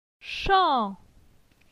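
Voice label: "Chan", French; phonetique; la syllabe an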